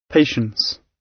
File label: "patience", gb